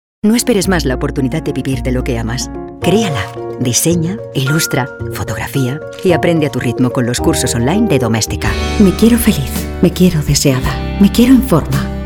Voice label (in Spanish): muestra publicidad